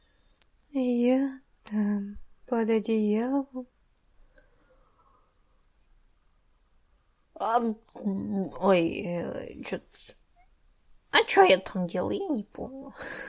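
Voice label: Voice, Female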